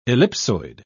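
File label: "ellipsoid", British English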